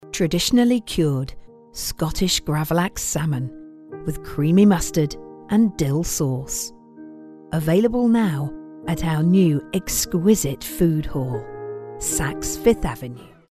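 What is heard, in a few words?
British English, female, commercial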